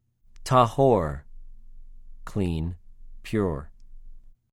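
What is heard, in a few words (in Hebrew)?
"טָהוֹר", Hebrew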